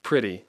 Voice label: "pretty", English; Speech Pro

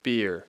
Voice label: "beer", English

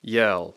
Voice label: "yell", English; Speech Pro

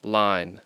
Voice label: "line", English

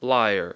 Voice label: "liar", English

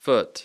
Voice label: "foot", English

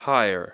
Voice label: "higher", English

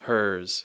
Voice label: "hers", English

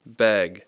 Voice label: "beg", English

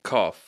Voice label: "cough", English

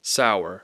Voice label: "sour", English

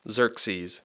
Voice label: "Xerxes", English; Speech Pro; X (z sound)

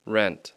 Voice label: "rent", English

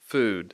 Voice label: "food", English

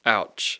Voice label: "ouch", English

